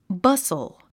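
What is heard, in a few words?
"bustle", English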